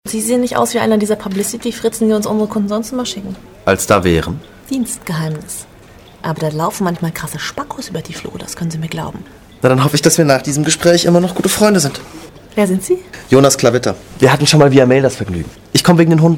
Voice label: dialog, deutsch frauen; sprecher

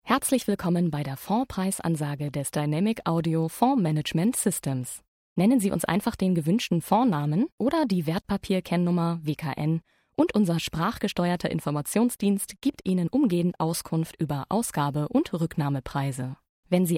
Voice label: deutsch frauen telefon; sprecher

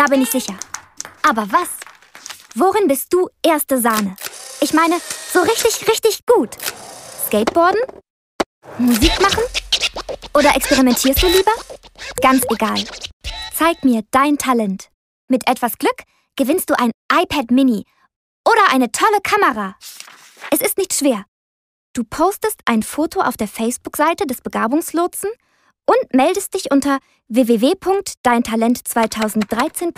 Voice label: deutsch frauen werbung; sprecher

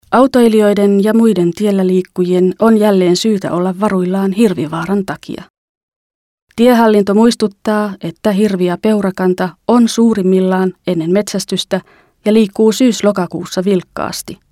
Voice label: finnisch

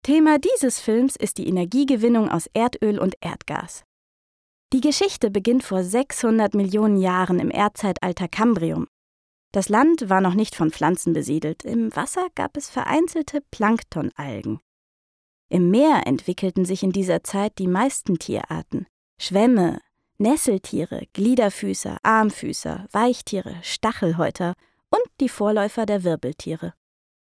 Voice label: deutsch frauen Lehrfilm; sprecher

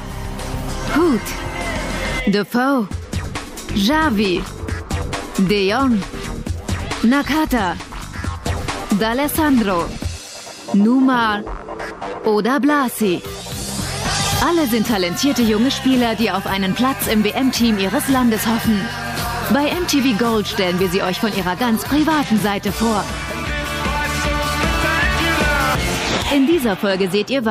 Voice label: moderation, deutsch frauen; sprecher

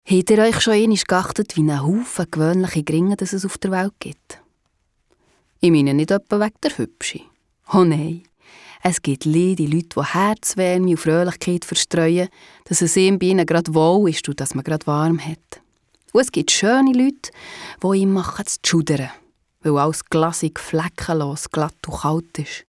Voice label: schweizerisch

